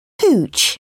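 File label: "hooch", British English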